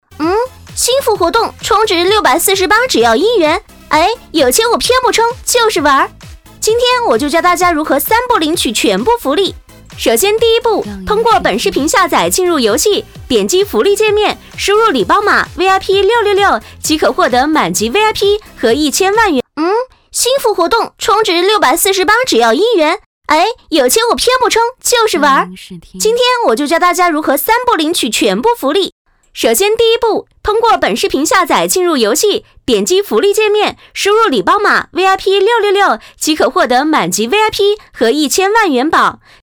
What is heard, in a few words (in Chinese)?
女 信息流 新服活动